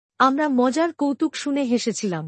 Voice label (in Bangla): We laughed at the funny joke. Bangla; Past Tense